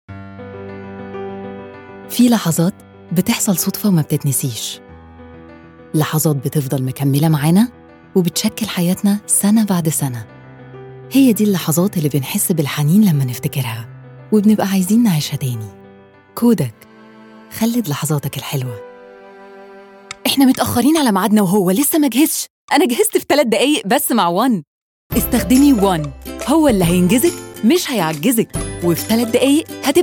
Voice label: arabic commercial